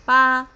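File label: "Ba", eight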